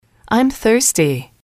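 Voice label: "I'm thirsty", English, female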